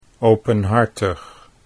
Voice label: "openhartig", Dutch